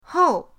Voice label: "hou4", pinyin

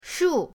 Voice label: "shu4", pinyin; pinyindct-open

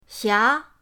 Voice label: "xia2", pinyin; pinyindct-open

